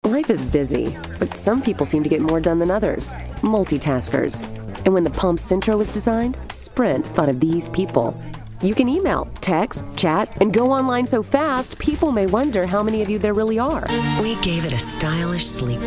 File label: commercial women